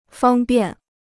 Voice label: "方便", Chinese

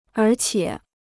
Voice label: "而且", Chinese

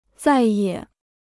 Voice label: "再也", Chinese

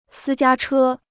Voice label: "私家车", Chinese

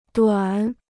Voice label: "短", Chinese

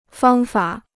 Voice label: "方法", Chinese

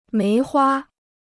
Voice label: "梅花", Chinese

